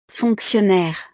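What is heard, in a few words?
"fonctionnaire", French; profesiones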